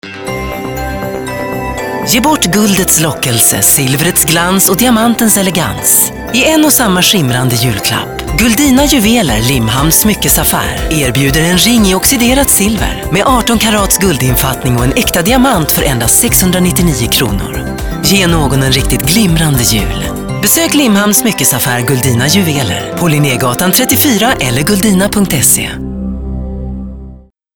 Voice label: swedish